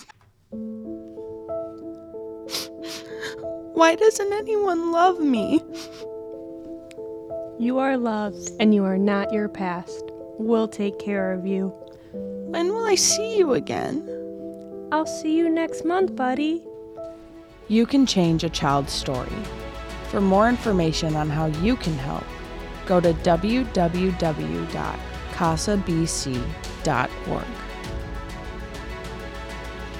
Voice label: RADIO PSA; CASA